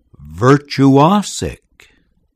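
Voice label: "virtuosic", English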